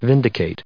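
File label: "vindicate" English, US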